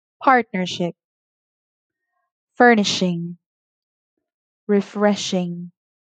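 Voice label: sh three Medial, pronunciation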